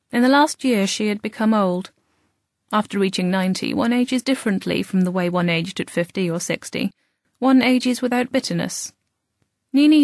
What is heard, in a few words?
audiobook